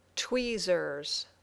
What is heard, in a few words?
"tweezers", English